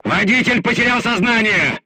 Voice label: voice message driver contused, crew tanks russian